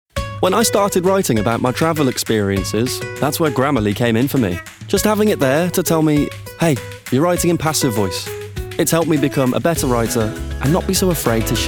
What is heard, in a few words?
Commercial